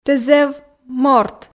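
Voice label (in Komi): "тэ зэв морт", Komi, female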